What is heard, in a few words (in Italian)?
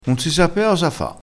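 "sapea", vocabolario dialettale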